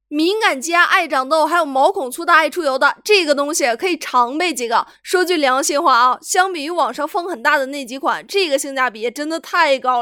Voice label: 素人信息流

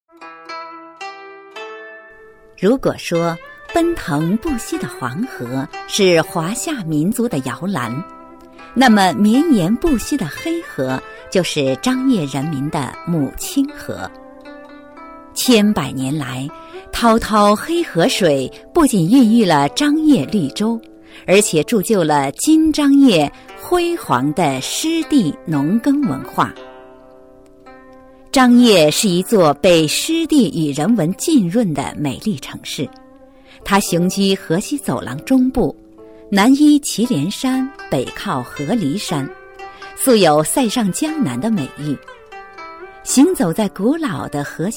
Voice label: 宣传片; 张掖旅游

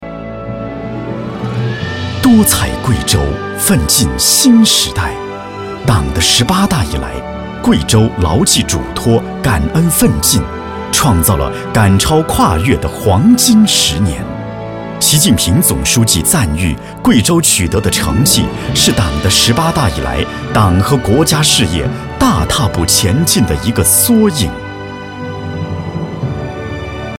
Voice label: 党建政府类大气浑厚; 贵州

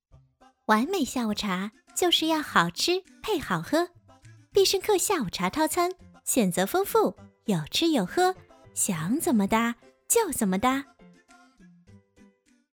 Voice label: 广告小样; 必胜客

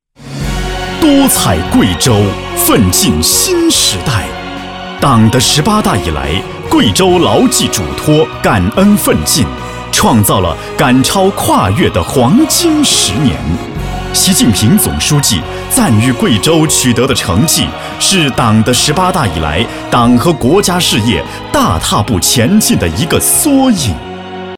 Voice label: 党建类激情浑厚大气

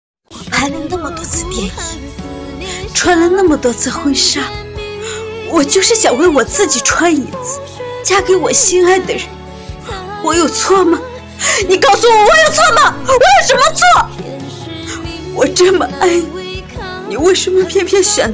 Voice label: 影视配音疯批女

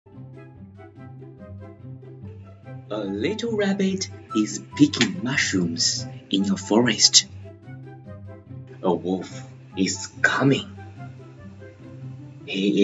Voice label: 英文绘本

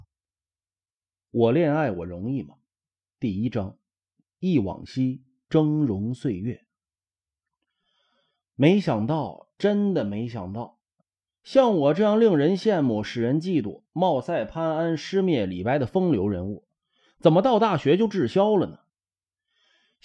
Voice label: 有声小说; 我恋爱我容易么

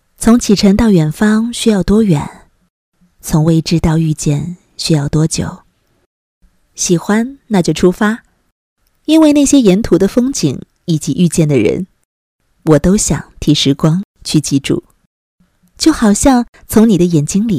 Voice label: 旁白

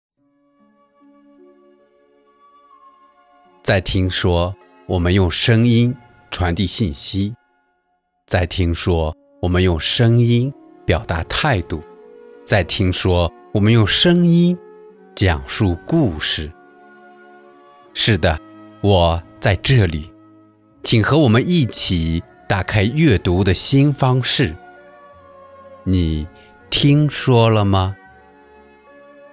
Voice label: 讲述类 试音

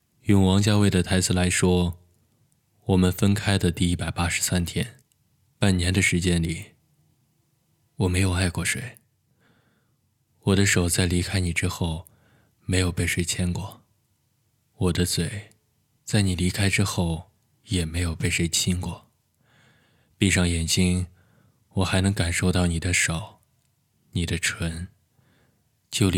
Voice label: 低沉男音

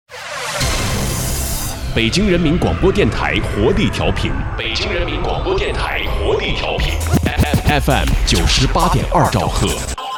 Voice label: 广播台标; 北京青年广播台标

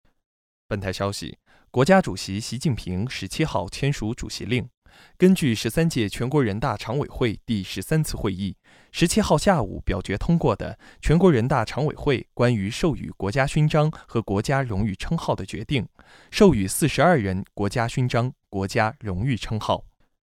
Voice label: 新闻

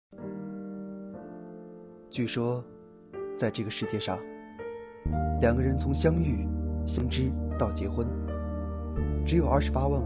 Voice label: 广告类深情

